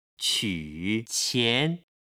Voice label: "quqian", Chinese